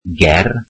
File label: welsh near